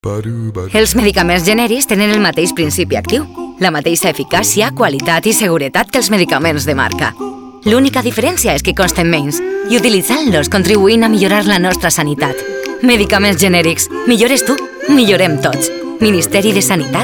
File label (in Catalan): valenciano; 20 segundos; medicamentos Genericos EFG